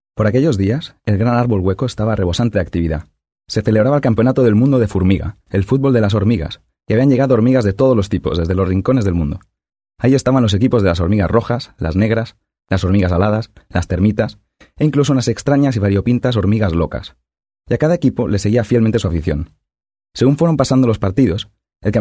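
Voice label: Spanish EU, male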